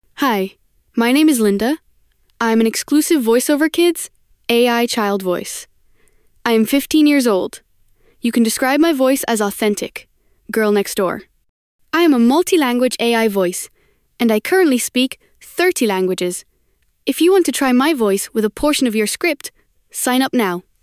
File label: girl15; voiceoverkids